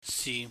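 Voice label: "si", Cantonese, low level tone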